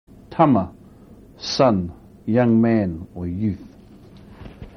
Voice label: "tama", Maori